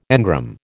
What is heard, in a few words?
"engram", American